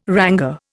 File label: "rang", Indian